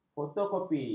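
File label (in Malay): "fotokopi", Malay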